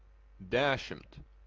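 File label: "desimt", Lithuanian, male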